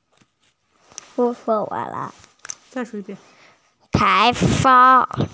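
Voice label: "tai2feng1" Mandarin Chinese, female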